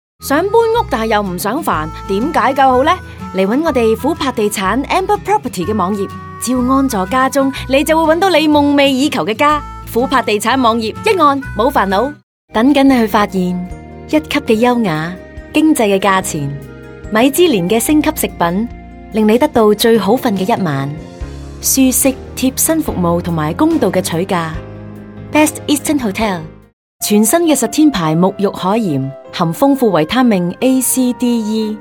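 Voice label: Cantonese TVC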